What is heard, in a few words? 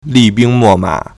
"li4bing1mo4ma3", Chinese